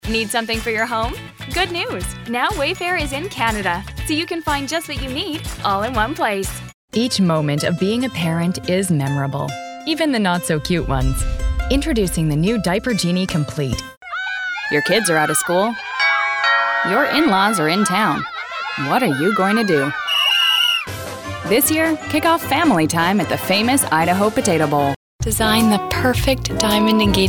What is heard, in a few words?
Voice Commercial